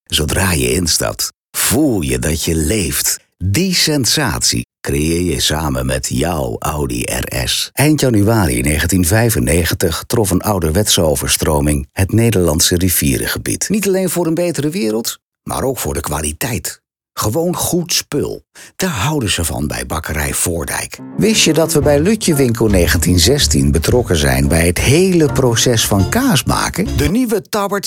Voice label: dutch commercial; The Netherlands

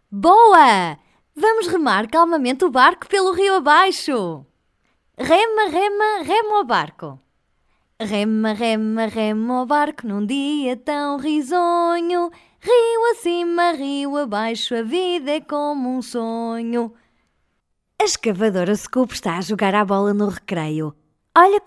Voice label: portuguese animation

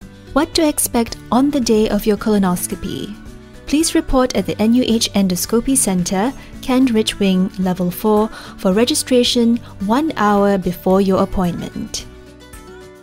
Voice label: english singapore medical narration

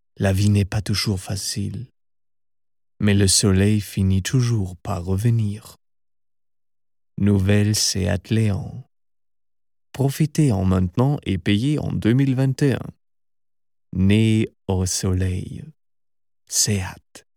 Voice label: french corporate videos

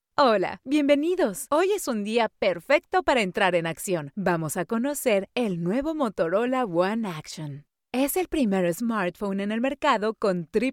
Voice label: spanish latin american corporate videos

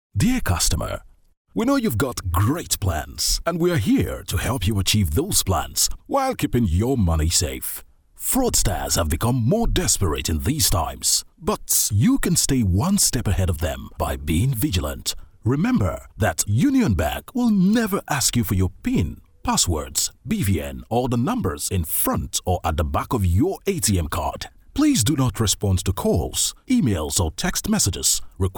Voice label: english african corporate videos

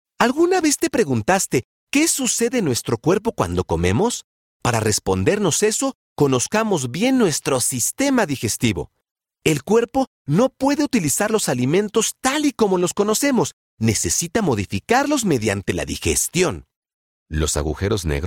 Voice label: spanish latin american commercial

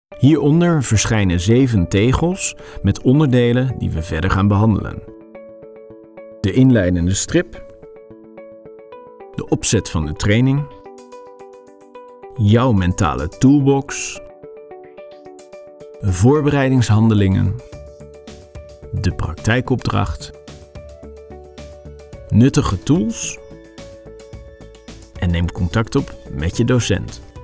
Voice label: dutch e-learning

